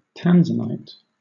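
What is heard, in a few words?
"tanzanite", British English; pronunciation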